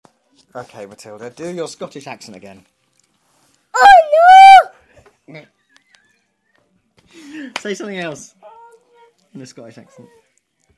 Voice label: a scottish accent